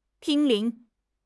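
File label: "濒临", Chinese; HSK6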